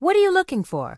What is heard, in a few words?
Human Female Standard NPC Vendor